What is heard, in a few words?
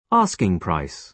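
"asking price", British English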